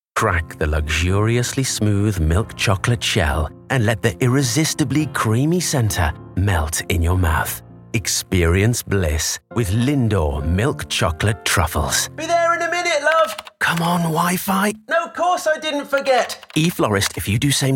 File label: commercial montage